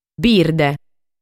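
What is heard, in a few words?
"Birde", Sardinian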